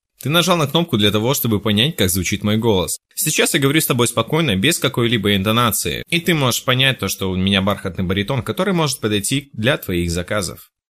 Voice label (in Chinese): 俄语男声